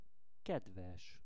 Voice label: "kedves", Hungarian; szavak es kifejezesek